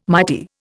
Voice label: "mighty", Indian